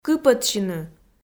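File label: "кыпӧдсьыны", Komi, female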